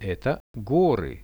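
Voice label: "these are mountains", Russian; Это горы; nature; priroda; RUSSIAN VISUAL DICTIONARY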